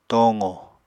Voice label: "tooqo", apatani